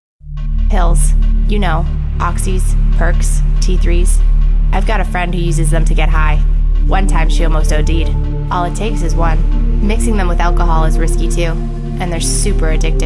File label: opiates female; northern ont opioid use